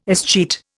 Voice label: "escheat", Indian